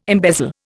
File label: "embezzle", Indian